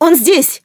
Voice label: search, woman; Stalker Anomaly

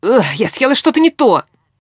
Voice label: idle, characters voice human woman; Stalker Anomaly

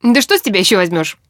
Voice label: reaction joke, human woman; Stalker Anomaly

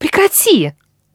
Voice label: no weapon, woman, talk

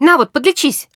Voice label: medkit, human woman help wounded; Stalker Anomaly

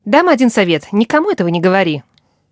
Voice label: reaction joke, human woman; Stalker Anomaly

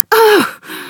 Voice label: help, human woman wounded heavy; Stalker Anomaly

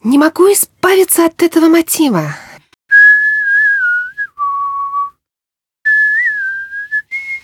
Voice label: idle, woman

